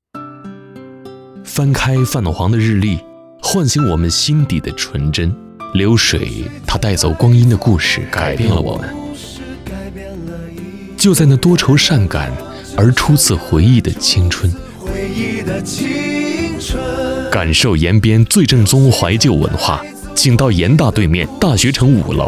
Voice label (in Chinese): 男, 陈述, 旁白; 自然光阴的故事